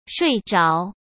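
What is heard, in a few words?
"睡着", Mandarin Chinese; chinese-flashcards; hsk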